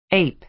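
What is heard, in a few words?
"ape" American English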